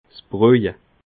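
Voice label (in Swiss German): "sbroje", Alsatian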